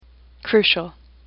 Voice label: "crucial", English; AWL